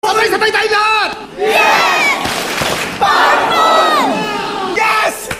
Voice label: yes powerful; kim chiu